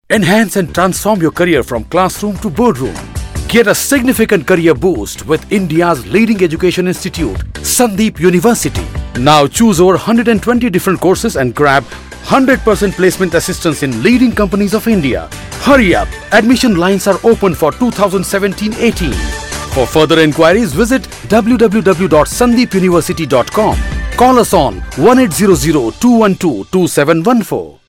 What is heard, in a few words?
English IVR